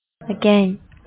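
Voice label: "again", Japanese speaker of English, female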